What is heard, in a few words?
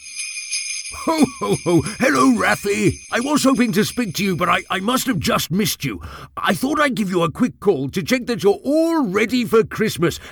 english british